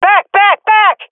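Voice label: request fallback, swat female; vo; Counter Strike Model Pack; CS:GO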